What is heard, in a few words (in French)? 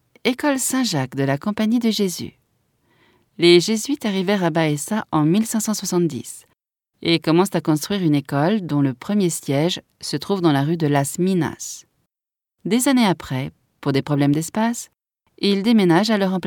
audioguia, frances; audioguia baeza